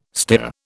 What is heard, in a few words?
"stere", British